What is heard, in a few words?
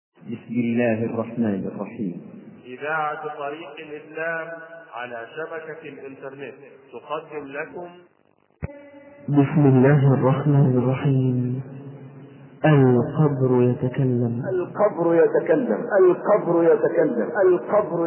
grave speech